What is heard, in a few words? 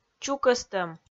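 "чукӧстӧм", Komi-Zyrian, female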